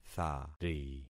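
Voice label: "thari", concatenated syllables; Affixing experiment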